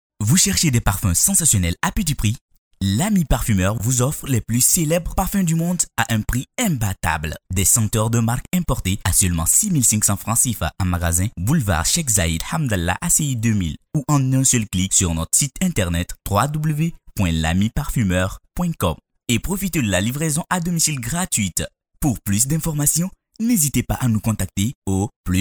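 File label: African French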